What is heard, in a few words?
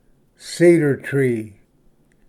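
"cedar tree", English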